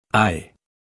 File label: Phoneme Male; Commonscript; Lynguistykon